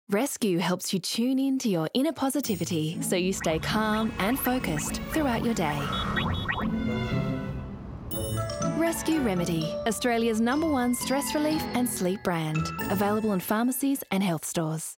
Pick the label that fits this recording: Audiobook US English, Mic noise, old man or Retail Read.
Retail Read